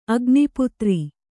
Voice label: "ಅಗ್ನಿಪುತ್ರಿ", Kannada

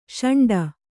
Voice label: "ಷಂಡ", Kannada

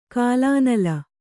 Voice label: "ಕಲುನೆಲ", Kannada

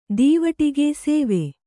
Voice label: "ದೀವಟಿಗೆ ಸೇವೆ", Kannada

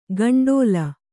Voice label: "ಕಂಡೋಲ", kannada

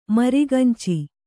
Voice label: "ಮರಿಗಂಚಿ", Kannada

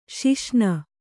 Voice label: "ಸಸಿನೆ", Kannada

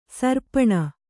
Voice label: "ಸೀರ್ಪನಿ", Kannada

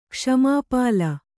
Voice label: "ಕ್ಷ್ಮಾಪಾಲ", kannada

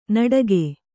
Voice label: "ನಡಗೆ", Kannada

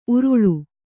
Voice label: "ಉರುಳು", Kannada

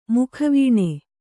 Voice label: "ಮುಖವೇಣು", Kannada

